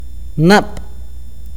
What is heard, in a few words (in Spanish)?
lluvia, akateko